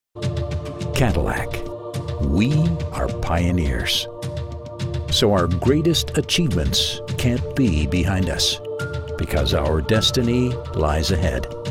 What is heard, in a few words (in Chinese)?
外籍英男 汽车广告